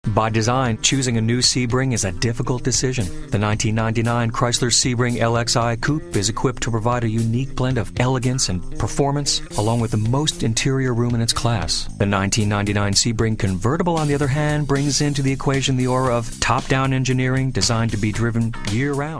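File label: commercial, Voiceover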